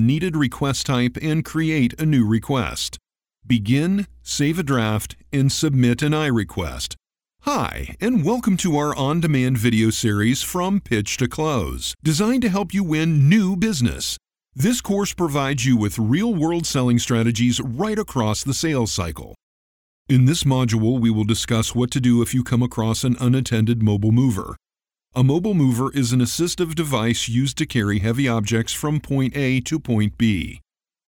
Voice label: eLearning

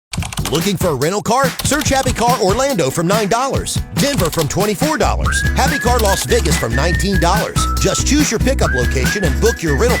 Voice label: Happy Car Rental

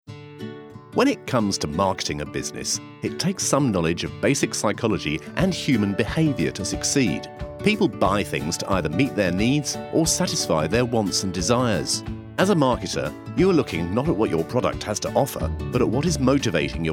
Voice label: Corporate

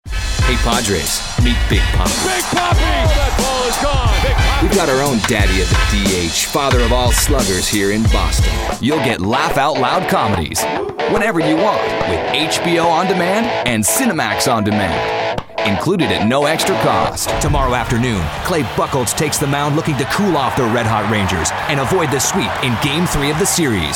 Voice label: Sports n Promos

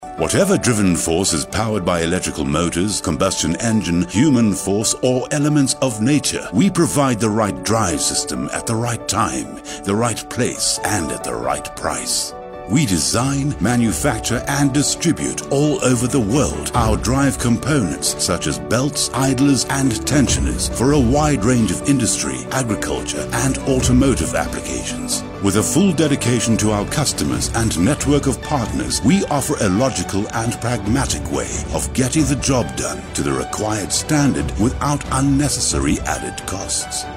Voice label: AUDIO VO Long

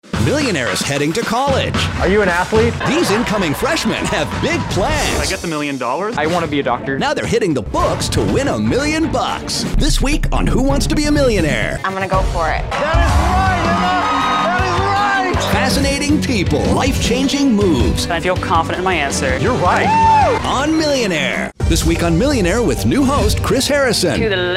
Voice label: PROMO; MILLIONAIRE PROMO COMPILATION

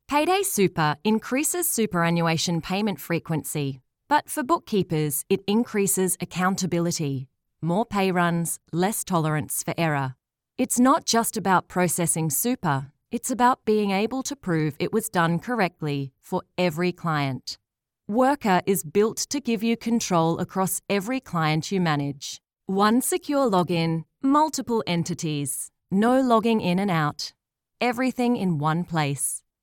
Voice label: Elearn Explainer; WRKR

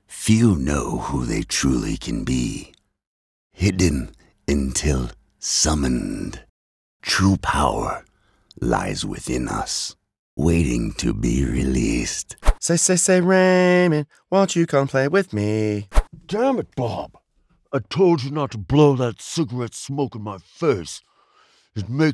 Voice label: Character Voice

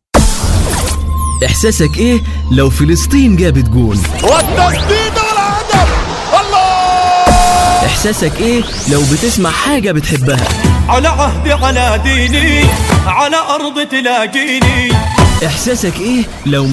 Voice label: Egyptian Radio Ad

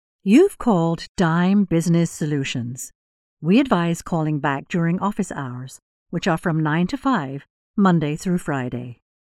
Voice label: Voicemail

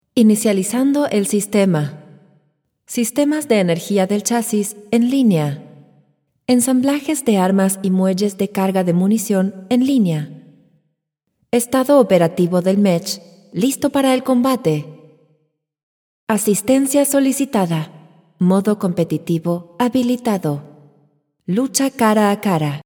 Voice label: Generic Latam; Mech Arena; AI

